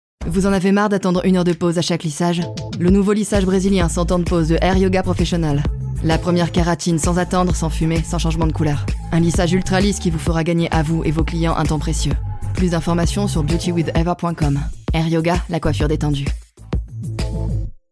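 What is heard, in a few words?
Energetic Advertising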